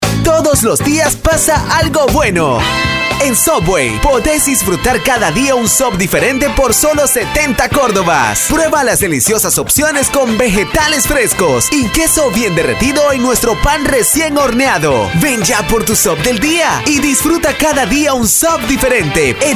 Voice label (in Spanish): Promo Todos Los Dias; SUBWAY